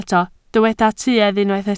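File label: "tuedd" Welsh, spoken word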